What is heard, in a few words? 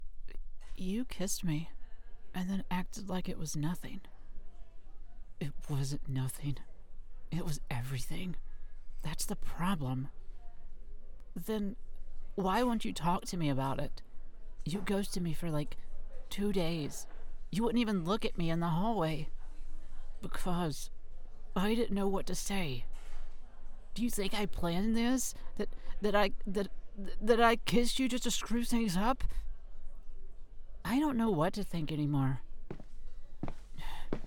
Emotional Cafe Scene